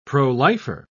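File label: "pro-lifer", British English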